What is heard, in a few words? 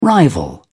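"rival", British English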